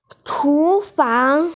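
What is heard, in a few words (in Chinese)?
"chu2fang2", Mandarin Chinese, female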